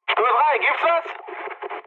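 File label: German chat, speech; War Thunder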